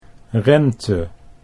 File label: "rente", Dutch; 'interest, return'